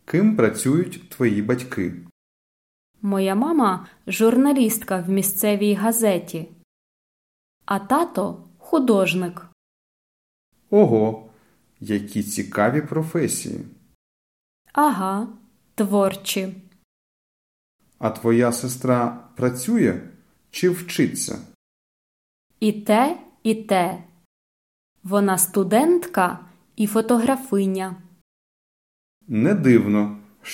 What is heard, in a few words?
Dialogue Slow